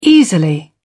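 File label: "easily", British English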